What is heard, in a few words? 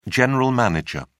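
"general manager", British English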